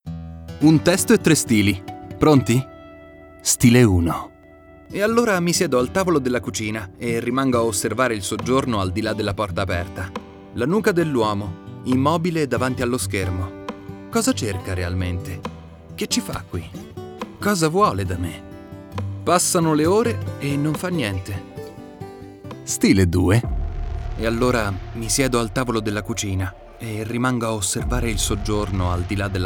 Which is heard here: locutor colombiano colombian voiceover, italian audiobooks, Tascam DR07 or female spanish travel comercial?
italian audiobooks